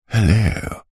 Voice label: human male middle aged says hello flirtatious suave and sophisticated